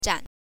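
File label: "zhan", Mandarin Chinese, neutral tone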